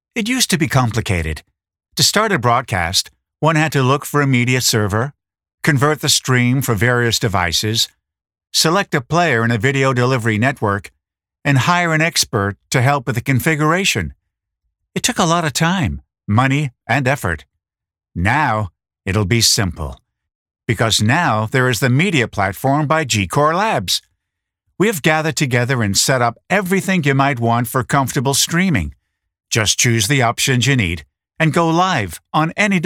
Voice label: english usa male

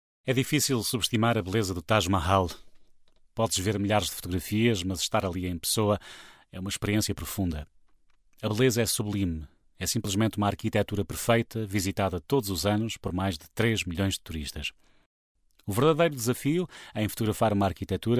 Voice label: portuguese europe male

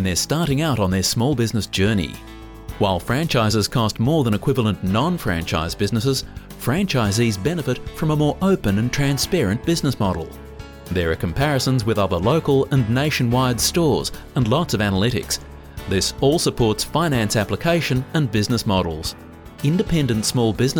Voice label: english australia male

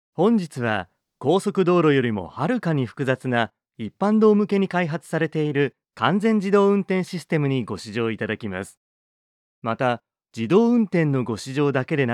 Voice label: japanese male

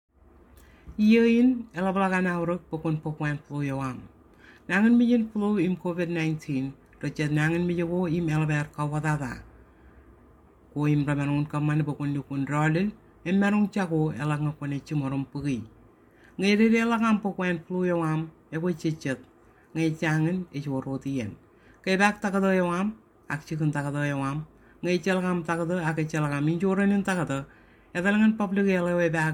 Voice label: marshallese female

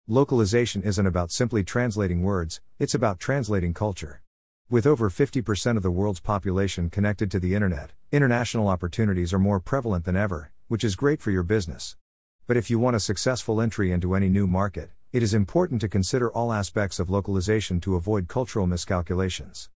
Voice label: english usa male

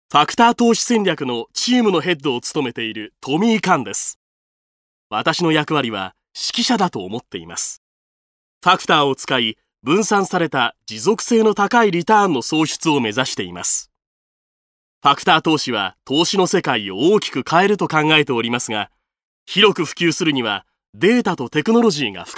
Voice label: japanese male

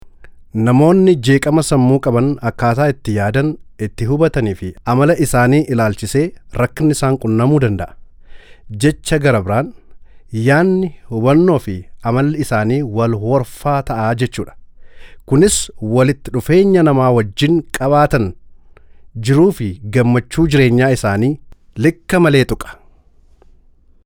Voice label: oromo male

